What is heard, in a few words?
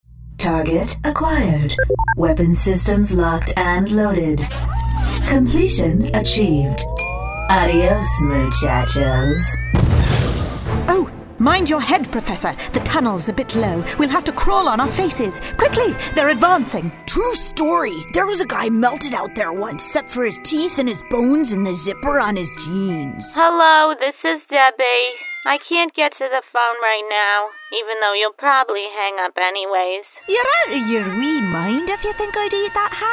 Animation